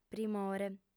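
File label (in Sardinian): "primore", female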